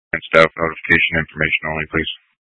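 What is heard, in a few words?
Incident Tactical 1, Scanner audio recording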